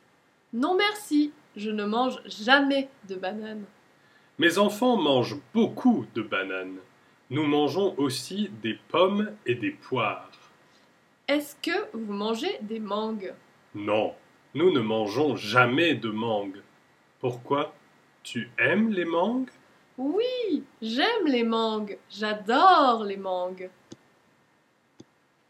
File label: dialogue manger, French; er verbs